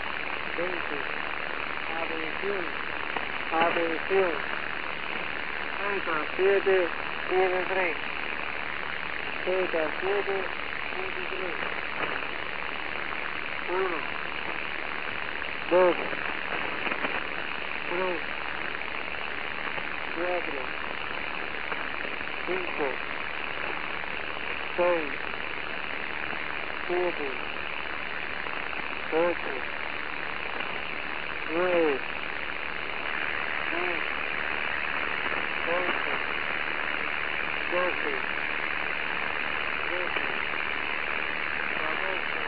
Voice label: Message Sample on 9415 kHz USB; V20; The Bored Man

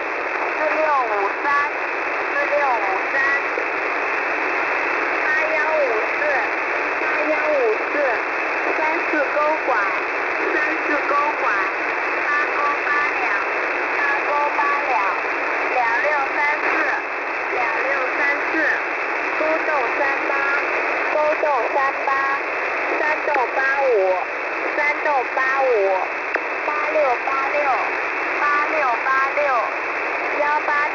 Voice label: Unknown Chinese Numbers Station

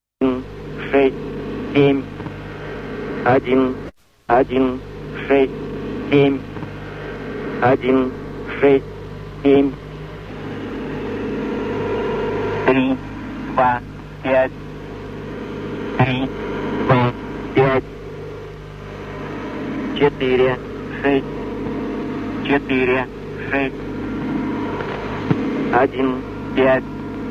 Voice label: Russian Man Variant

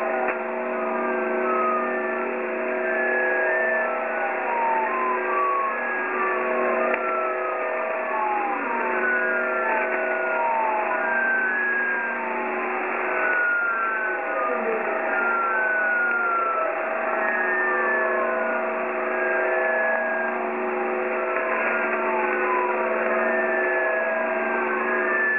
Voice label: numbers stations

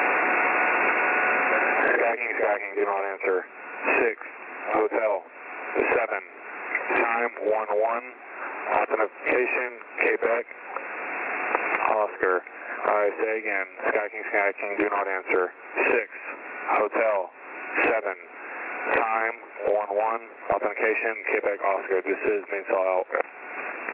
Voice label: skyking, military, numbers stations

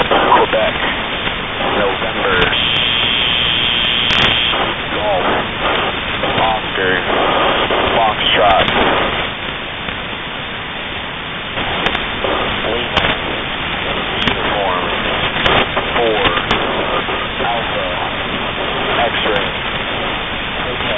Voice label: Aircraft EAM Rebroadcast; Automatic; HFGCS

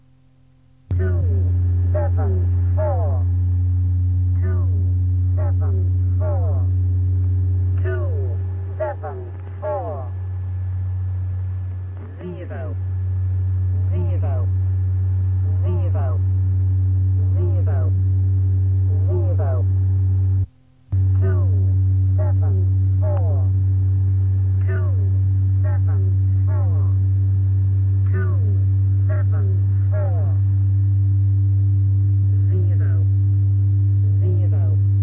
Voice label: The English Lady, numbers stations; E17

